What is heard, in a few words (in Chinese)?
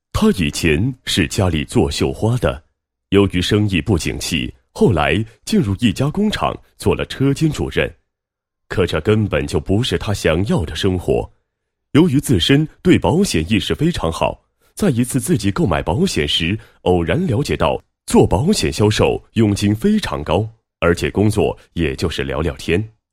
男 人物 旁白解说; 某人寿分公司总监旁白解说